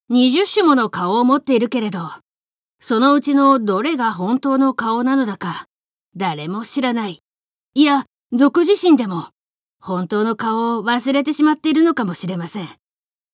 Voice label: boy; 怪人二十面相; Japanese